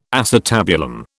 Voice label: "acetabulum", British

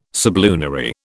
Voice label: "sublunary", British

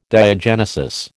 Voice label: "diagenesis", American

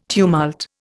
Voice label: "tumult", Indian

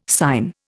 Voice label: "sine", Indian

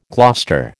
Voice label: "Gloucester", American

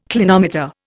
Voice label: "clinometer", Indian

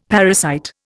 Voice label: "parasite", Indian; Dictionary

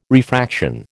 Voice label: "refraction", American

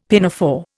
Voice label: "pinafore", Indian; Dictionary

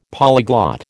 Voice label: "polyglot", American

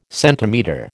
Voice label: "centimetre", American

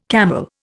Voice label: "camel", Indian

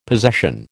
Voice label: "possession", American

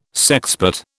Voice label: "sexpot", British

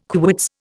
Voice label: "quits", Indian

